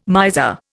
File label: "miser", Indian